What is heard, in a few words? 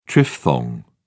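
"triphthong", British English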